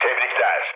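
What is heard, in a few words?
Turkish player killed enemy, mood low, speech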